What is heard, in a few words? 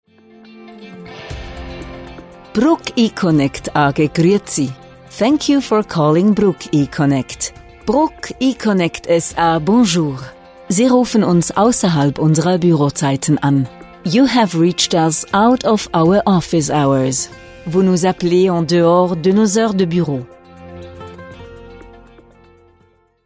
dt fr eng